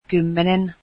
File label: ten, finnish